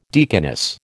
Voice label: "deaconess", American